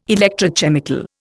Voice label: "electrochemical", Indian

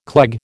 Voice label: "cleg", American

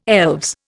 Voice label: "elves", Indian

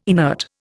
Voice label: "inert", Indian

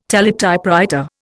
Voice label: "teletypewriter", Indian

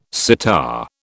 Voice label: "sitar", British

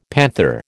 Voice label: "panther", American

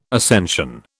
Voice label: "ascension", British

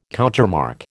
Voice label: "countermarch", American

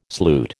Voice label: "sloot", American

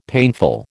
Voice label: "painful", American

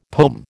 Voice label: "pome", American

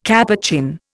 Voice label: "Capuchin", Indian

